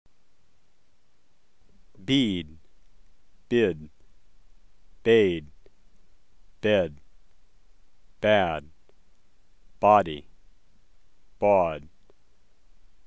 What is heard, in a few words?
american vowels, phonology; topics-in-linguistic-theory-laboratory-phonology